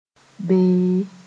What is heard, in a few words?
"beẽ", moore